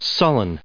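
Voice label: "sullen", US English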